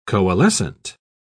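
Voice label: "coalescent", English, British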